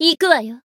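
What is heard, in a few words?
attack, Japanese; toova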